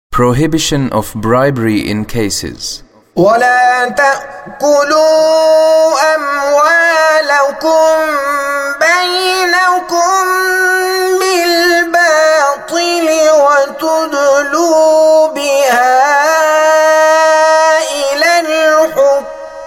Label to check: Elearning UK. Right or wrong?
Wrong